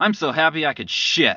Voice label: male hey i could shit; cs2d; cityrp; pedestrian